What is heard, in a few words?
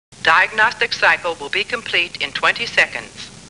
Star Trek Computer Voice; StarTrekLCAR